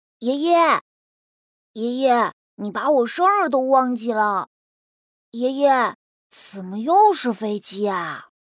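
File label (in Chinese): 男童 真童配音 8-12岁; 中文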